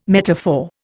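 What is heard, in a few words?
"metaphor", English, Indian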